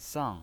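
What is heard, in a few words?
"sang", Mandarin Chinese pinyin voice, fourth tone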